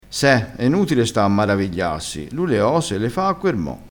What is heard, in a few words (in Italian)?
"a quer mo", Crespinese